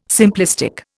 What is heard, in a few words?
"simplistic", Indian